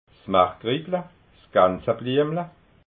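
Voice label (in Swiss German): "margrittla", Alsatian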